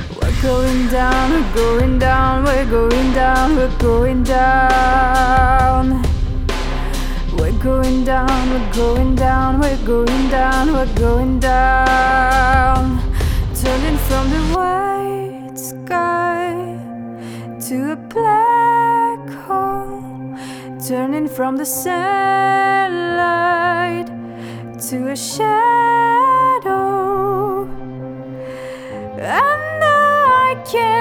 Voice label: TLM103 wet soft female voice; neumann; test neumann tlm 103 vs mxl 990